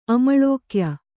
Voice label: "ಅಮಳೋಕ್ಯ", kannada

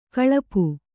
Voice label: "ಗಳಪು", kannada

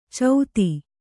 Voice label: "ಚೌತಿ", Kannada

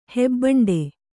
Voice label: "ಹೆಬ್ಬಂಡೆ", Kannada

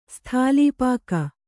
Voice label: "ಸ್ಥಾಲೀಪಾಕ", kannada

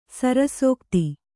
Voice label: "ಸರಸೋಕ್ತಿ", Kannada

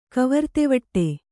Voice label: "ಕವರ್ತೆವಡೆ", Kannada

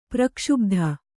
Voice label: "ಪ್ರಕ್ಷುಭಿತ", Kannada

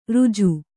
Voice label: "ರುಜು", Kannada

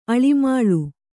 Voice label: "ಆಳಮಾಳ", Kannada

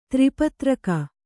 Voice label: "ತ್ರಿಪತ್ರಕ", Kannada

